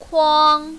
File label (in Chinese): "kuang1", mandarin, female